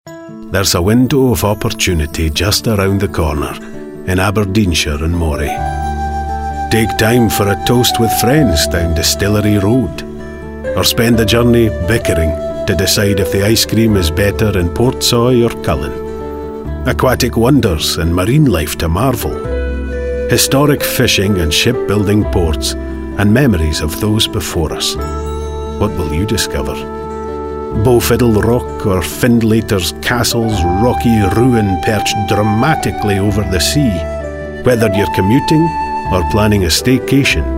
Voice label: Advert; Visit Aberdeenshire